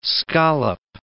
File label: "scollop", English